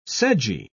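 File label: "sedgy", British English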